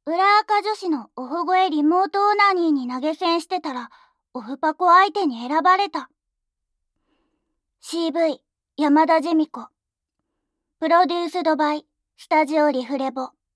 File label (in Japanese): タイトルコール, ASMR